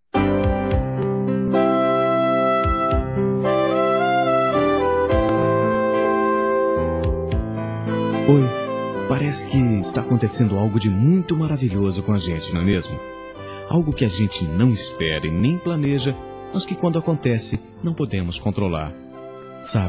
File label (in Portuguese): pedido de namoro - m